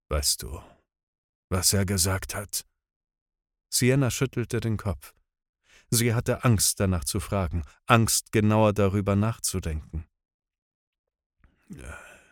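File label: German Audiobook Male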